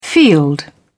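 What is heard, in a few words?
"field", English, British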